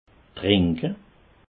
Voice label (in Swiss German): "trinke", alsace